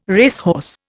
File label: "racehorse", Indian